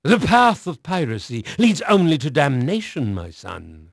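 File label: English male; Pirates Of The Caribbean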